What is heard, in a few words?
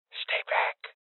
Voices Guardian Male Old